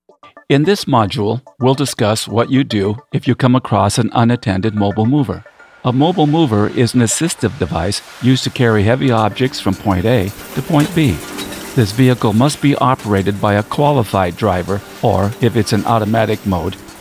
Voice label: Voice Over; Mobile Mover